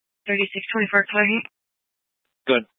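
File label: Police Dispatch, Scanner audio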